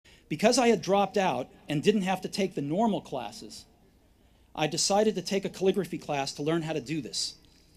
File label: Commencement Address; Steve Jobs; 2005; Stanford